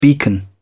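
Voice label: "beacon", English, British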